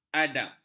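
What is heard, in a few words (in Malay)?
"adab", Malay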